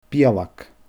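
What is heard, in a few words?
"beulag", Scottish Gaelic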